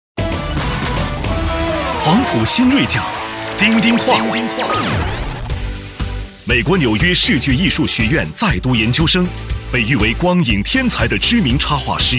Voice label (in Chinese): 男 轻松 喜悦 颁奖类 动漫奖颁奖; 高端磁性; 轻松活泼